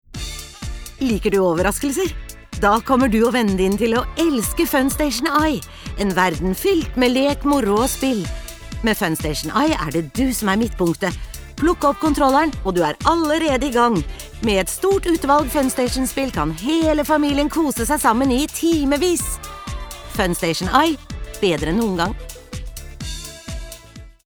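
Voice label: Norwegian cheerful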